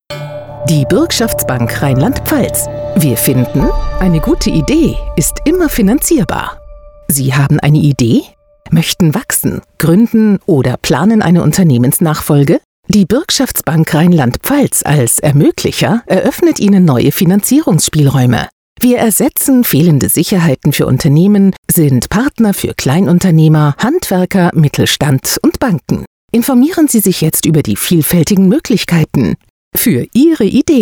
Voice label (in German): Werbespot; Antenne